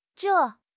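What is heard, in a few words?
"zhei4", Chinese; HSK1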